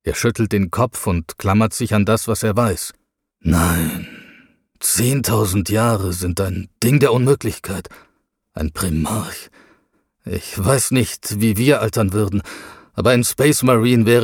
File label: audiobook scifi dialog